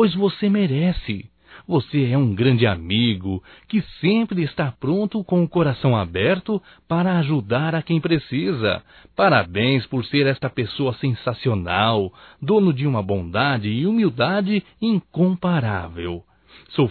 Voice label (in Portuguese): ANIV PADRINHO (M); Telemensagens 2017; Aniversarios